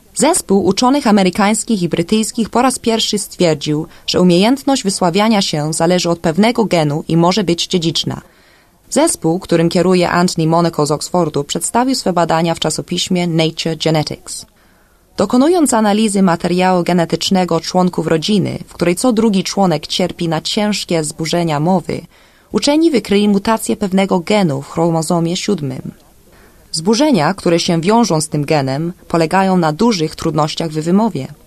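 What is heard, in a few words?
Polish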